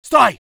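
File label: voice soviet infantry; Red Orchestra 41-45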